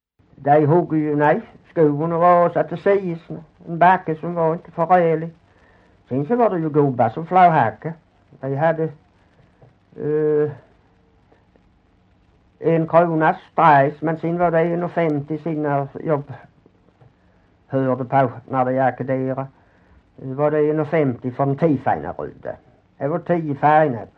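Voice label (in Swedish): Hörja Skåne, dialekter; Folkminnen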